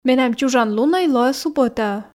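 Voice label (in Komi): менам чужан лунӧй лоӧ субӧтаӧ, Komi, female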